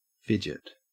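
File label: "fidget", Australian English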